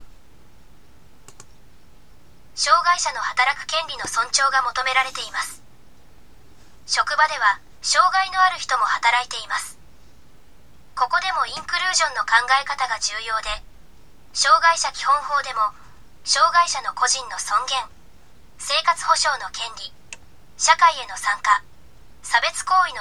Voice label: elearning; japan; business and human rights